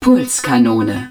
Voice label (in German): pulse cannon, german, hud